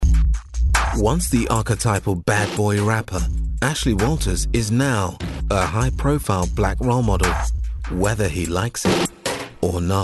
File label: british promos; BRITISH » Clear, precise, and engaging.